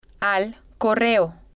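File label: "al correo", spanish, female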